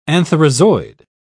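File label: "antherozoid", British English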